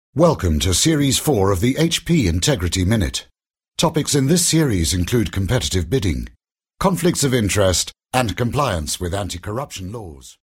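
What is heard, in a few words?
English British, male, e learning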